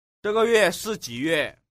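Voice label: "zhe ge yue" Chinese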